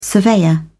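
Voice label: "surveyor", British English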